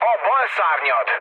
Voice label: left wing, mood low, speech hun; War Thunder